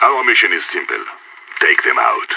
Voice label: radio lock n load; idf

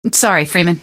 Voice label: "sorry", female; npc; Garry's Mod; hl2

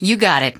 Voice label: you got it, female; npc; Garry's Mod; hl2

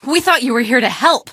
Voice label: here to help, female; Garry's Mod; hl2

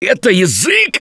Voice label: grabbed by smoker, russian, Survivor Voice; Left 4 Dead 2; Gambler

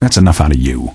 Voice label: answer, male; Garry's Mod; hl2

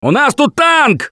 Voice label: Warn Tank, russian; Gambler

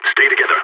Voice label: radio bot regroup, balkan; Counter-Strike Global Offensive

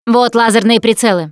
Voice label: laser sights, russian, Survivor Voice Producer

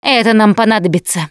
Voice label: Take Pills, russian; Producer

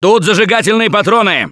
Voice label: incend ammo, russian, Survivor Voice; Gambler

